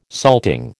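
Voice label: "salting", American English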